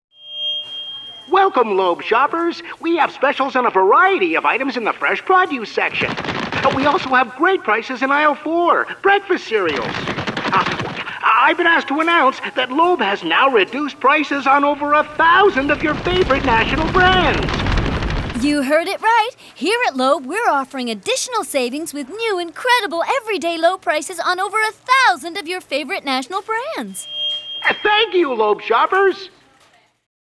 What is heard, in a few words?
Radio Spot; Loeb; Holiday Feast